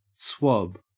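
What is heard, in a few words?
"swab", Australian English; pronunciations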